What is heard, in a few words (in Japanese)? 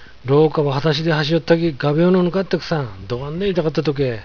廊下ば裸足で走ぃよったぎ、画鋲のぬかってくさん、どがんでん痛かったとけ〜。, 有田弁; 廊下を裸足で走っていたら、画鋲が刺さってとても痛かったよ。; ぬかる; 刺さる